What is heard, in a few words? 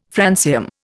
"francium", Indian